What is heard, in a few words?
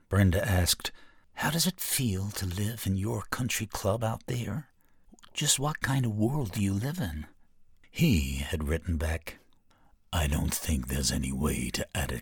US Reel